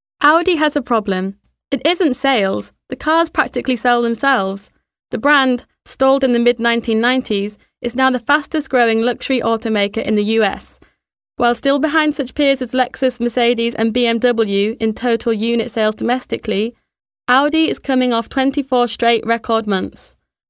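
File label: British English, female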